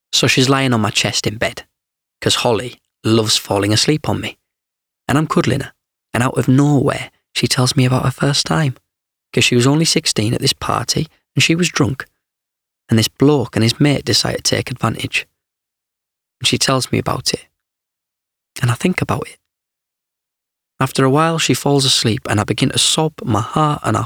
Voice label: drama and narration, voiceover